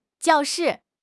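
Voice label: "jiao4 shi4", Chinese; HSK2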